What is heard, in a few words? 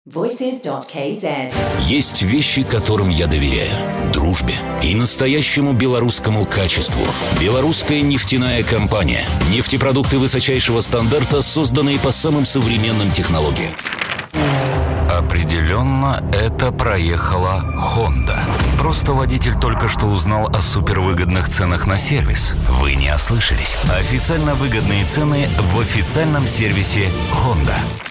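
russian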